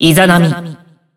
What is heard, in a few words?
System Voice Character Select; BBCF; Hibiki Kohaku; Izanami